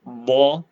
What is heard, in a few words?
short voyels arabic alphabet